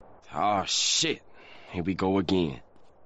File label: "oof", funny sound effect tone